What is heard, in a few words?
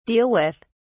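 "deal with", British English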